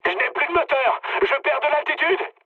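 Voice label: French damaged engine mood med; War Thunder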